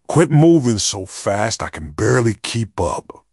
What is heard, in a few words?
upset; fertig classic games